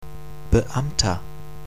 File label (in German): "Beamter", pronunciation